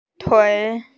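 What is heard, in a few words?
"tui" Chinese, female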